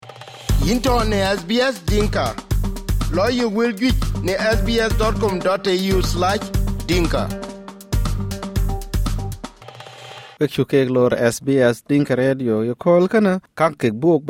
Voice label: dinka, podcast; SBS Dinka